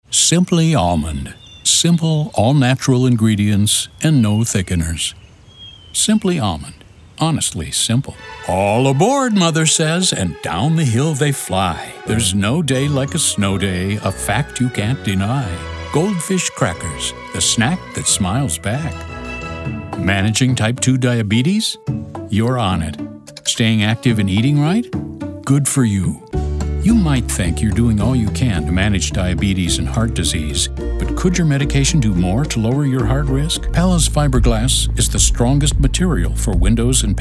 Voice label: Male, commercial